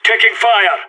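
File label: radio taking fire, voice; agents; sas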